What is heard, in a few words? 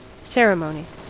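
"ceremony", US English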